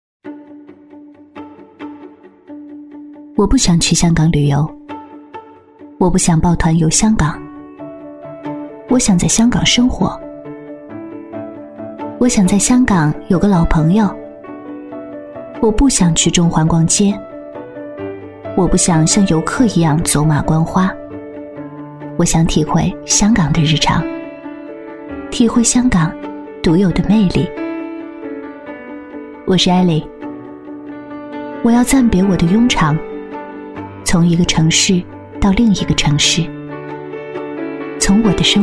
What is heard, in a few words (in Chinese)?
女 旅游日记独白 素人口叙; 女21-旅游日记独白《香港中文大学中文篇-去TA的生活》-素人口叙; 女21-大气知性; 成熟知性